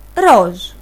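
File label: pink, Serbian; gcompris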